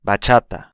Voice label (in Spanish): "bachata", espanhol; ¡Saludos!; estilos musicais em espanhol